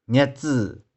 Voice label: "nih4tsy2", 玉環

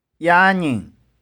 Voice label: "ia2ning1", 玉環

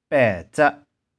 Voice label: "pae2tseh4", 玉環

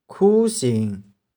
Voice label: "khu1shing1", 玉環

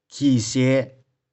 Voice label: "khi2shie5", 玉環

